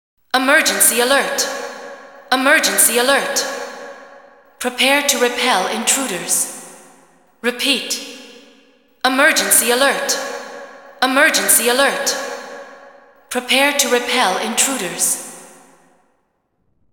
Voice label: Emergency alert (English); Sonic Riders